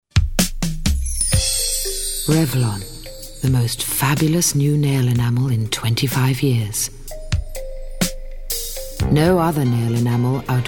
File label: Commercial; Revlon; Vocal Point